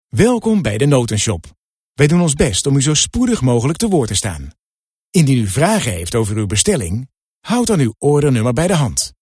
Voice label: Voice Over voor Telefoonsysteem

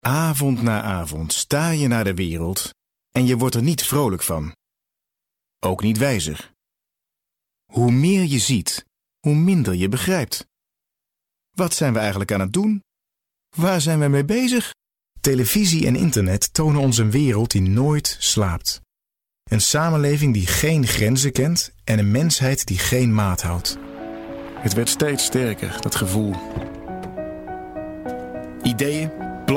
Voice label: Voice Over Webvideos Bespiegelend, Dutch voice over; beautiful, deep voice; male voice over

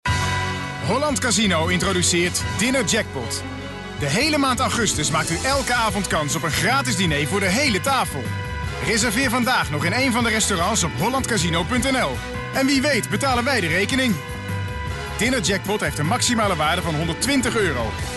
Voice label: Voice Over Commercials Energiek, Stemacteur

